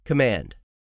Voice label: "COMMAND", english; 3000 words